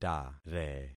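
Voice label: "tare", concatenated syllables; Affixing experiment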